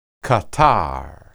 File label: "qatar", Hebrew